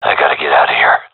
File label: scared; Counter Strike; CS:GO; seal diver